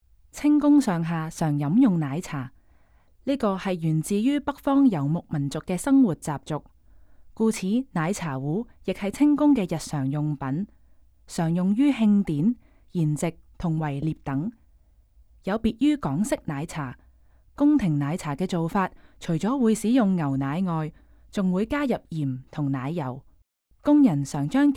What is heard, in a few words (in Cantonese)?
Can VO; from dawn to dusk